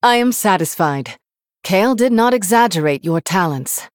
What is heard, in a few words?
Female Human; Jaina